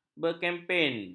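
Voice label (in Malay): "berkempen", Malay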